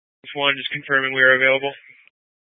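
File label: Fire Dispatch, Scanner audio recording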